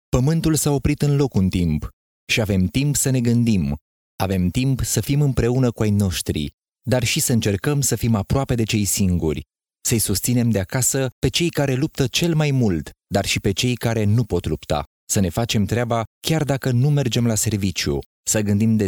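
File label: Romanian male Radio Commercial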